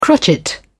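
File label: "crotchet", British English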